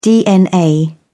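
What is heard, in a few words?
"dna", British English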